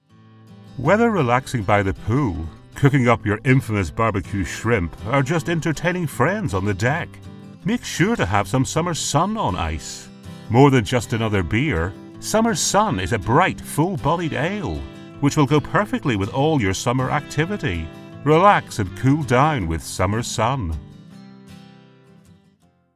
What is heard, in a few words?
Drink Commercial